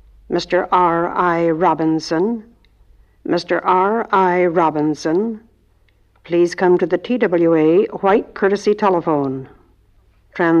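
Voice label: Airport; Flight Announcements; American Female Announces Twa Flight From L. A. To Chicago But Refers To South African Airways.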